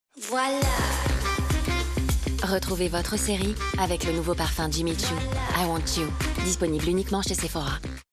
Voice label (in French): parrainage TV; Jimmy Choo; I want Choo